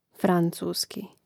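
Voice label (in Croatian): "francuski", hrvatski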